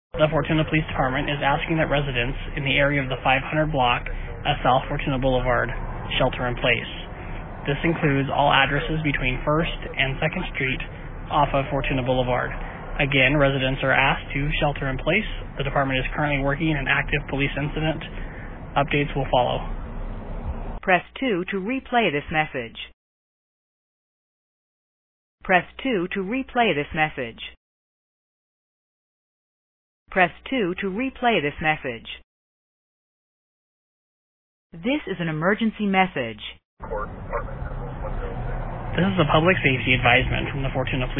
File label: Voicemail